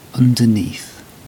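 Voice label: "underneath", British English; pronunciations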